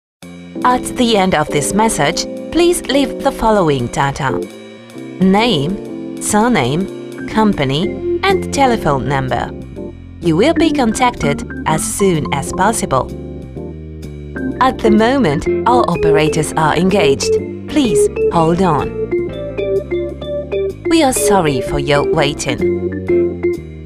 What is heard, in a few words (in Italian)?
fonia inglese